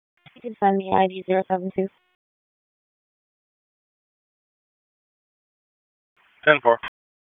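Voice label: Raleigh Police Southwest District Dispatch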